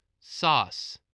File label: "sauce", english spelling